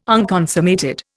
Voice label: "unconsummated", Indian